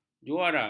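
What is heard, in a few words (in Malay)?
"juara", Malay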